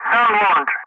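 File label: "sam launch", English; Ka-50; Wingman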